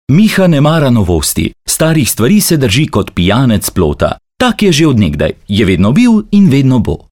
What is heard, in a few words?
Slovenian male